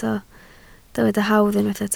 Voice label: "hawdd", Welsh

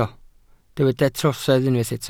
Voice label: "trosedd", Welsh

